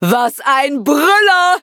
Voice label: victory, de; Cookie Run